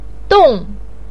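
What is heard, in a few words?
"dong", Mandarin pinyin, tone 4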